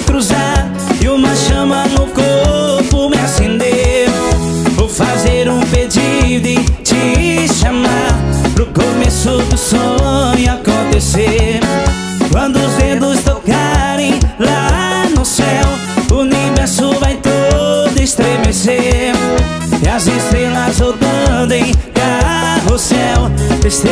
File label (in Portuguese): locutor a voz magnifica